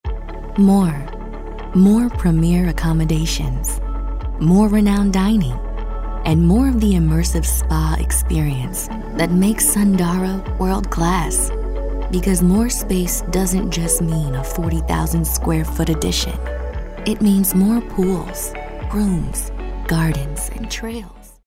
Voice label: smooth

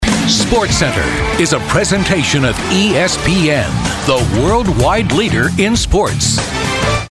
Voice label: sportscasters imaging

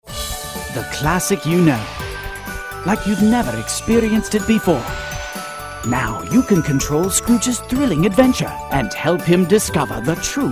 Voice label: british

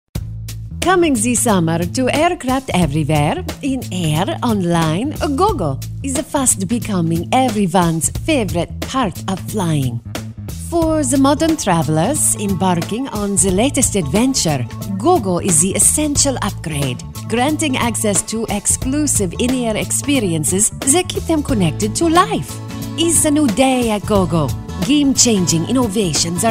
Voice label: sexy sophisticated

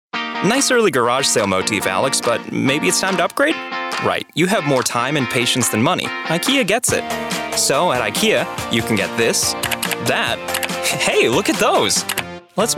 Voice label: English; English Showcase

